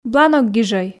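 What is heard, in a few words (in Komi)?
"бланок гижӧй", Komi, female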